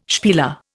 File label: "spieler", Indian